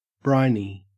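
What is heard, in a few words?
"briny", Australian English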